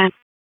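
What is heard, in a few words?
Police Special Operations Tactical; Raleigh; Spec Ops Tac 4; wake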